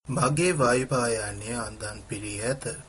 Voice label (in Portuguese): hovercraft, singales